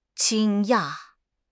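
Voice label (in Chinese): "惊讶", Mandarin Chinese; HSK6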